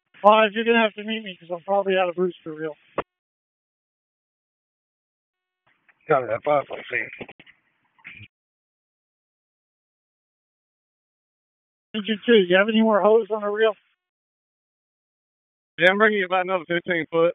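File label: FIRE Operations, p25; wake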